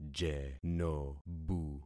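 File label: "jenobu", concatenated syllables; Affixing experiment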